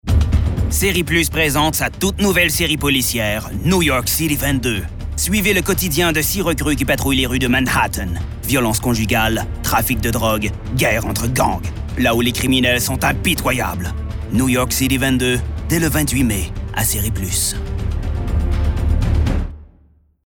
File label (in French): Pub Série